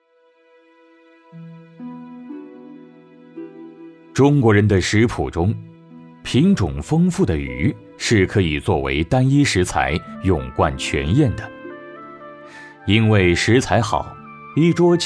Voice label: 沉稳讲述感

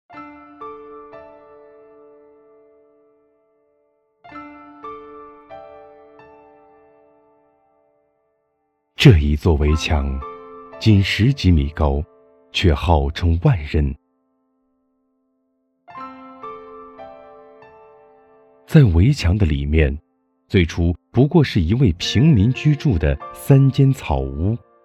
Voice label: 记录 理性评论

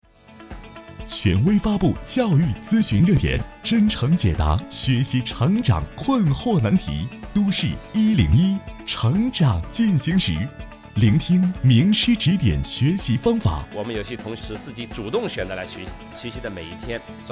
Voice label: 积极自然的感觉

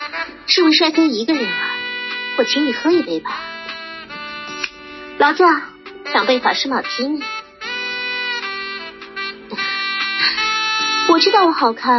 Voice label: 短视频类 妩媚 御姐风

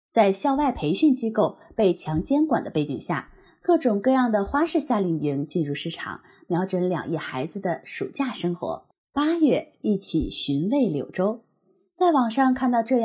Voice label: 新闻试音

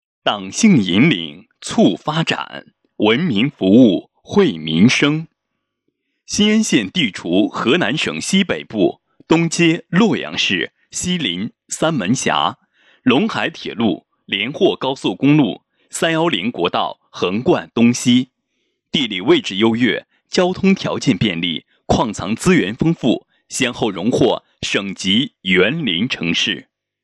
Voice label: 浑厚稳重专题片城乡建设路汇报; 5.浑厚稳重（专题片 城乡建设路汇报）

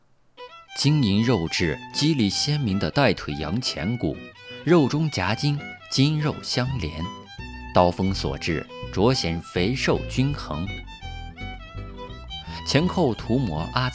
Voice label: 样音舌尖类

